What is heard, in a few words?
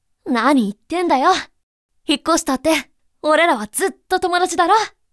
boy